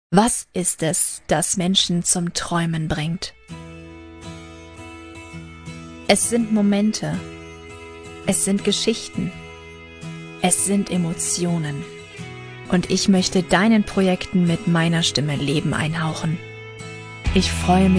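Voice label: German, female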